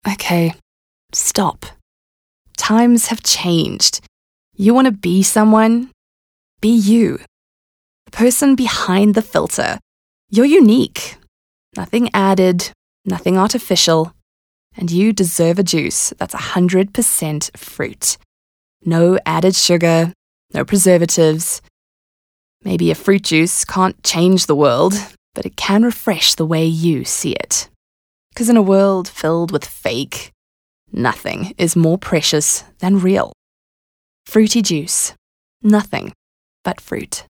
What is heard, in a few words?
English Intimate